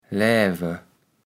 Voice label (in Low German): "Läve", kaevels platt